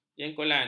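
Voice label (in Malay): "jengkolan", Malay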